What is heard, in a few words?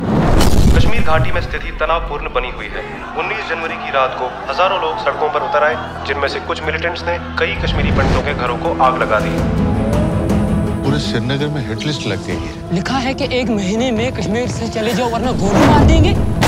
Dialogue Ringtone; Shikara